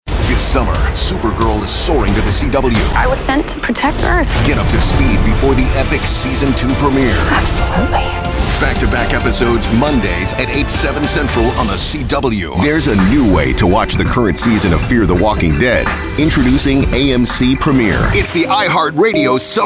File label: men promos